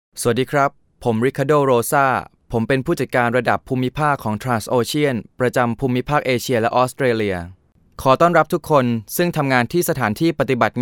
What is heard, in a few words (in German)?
Nachvertonung Film Sprecher Thai